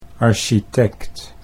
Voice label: "architect", Dutch